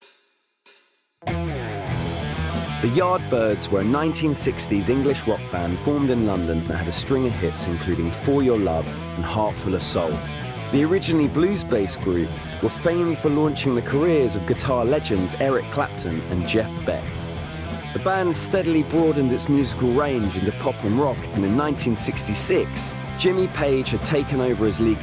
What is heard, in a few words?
Documentary